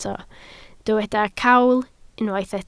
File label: "cawl", Welsh